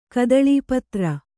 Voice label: "ಕದಳೀಪತ್ರ", Kannada

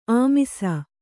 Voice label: "ಆಮಿಸ", Kannada; āmisa; = ಆಮಿಷ.

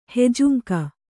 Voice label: "ಹೆಜುಂಕ", Kannada

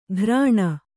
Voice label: "ಘ್ರಾಣ", Kannada

